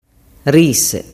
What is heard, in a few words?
"rise", dialettu